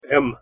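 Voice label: "м", Russian pronunciation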